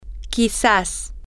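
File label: maybe, Spanish, female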